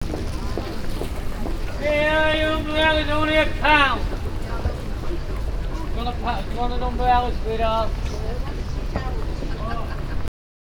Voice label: Liverpool Umbrella Seller; Sound Scapes